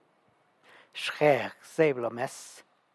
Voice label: The gourd hangs on top of the table, San Lucas Quiaviní Zapotec; Xjeg zeiby lo mes